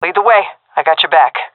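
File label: following friend, female; vo; Counter Strike Model Pack; CS:GO; seal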